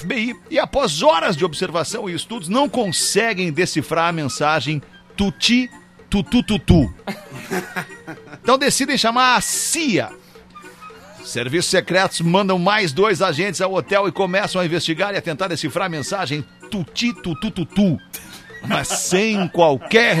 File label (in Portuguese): lula falando ingles